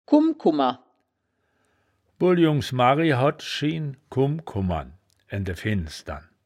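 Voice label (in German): "kum kummer", wittlicher woerterbuch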